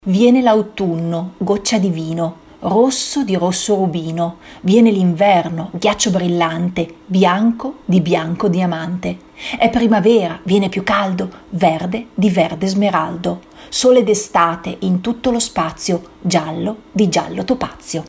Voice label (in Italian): filastrocca